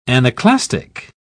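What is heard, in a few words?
"anaclastic", British English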